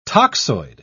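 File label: "toxoid", British English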